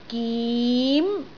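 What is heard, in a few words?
"gim2", Cantonese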